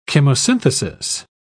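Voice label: "chemosynthesis", British English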